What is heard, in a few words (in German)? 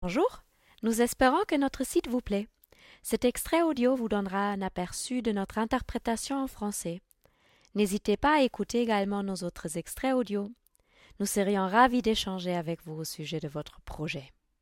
Franzoesisch; Farina